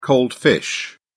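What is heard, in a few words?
"cold fish", British English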